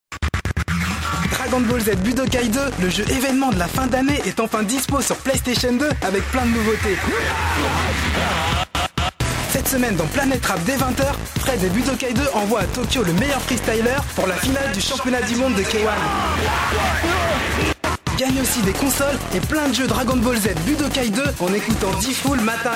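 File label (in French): french male commercial; voice demos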